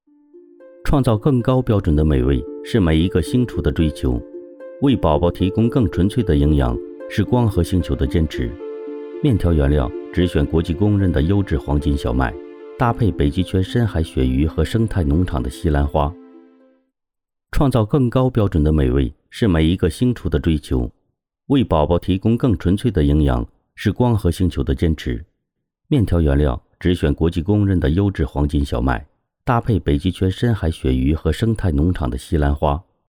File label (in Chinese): 美食广告; 光合星星球星球面